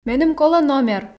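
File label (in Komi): меным колӧ номер, Komi, female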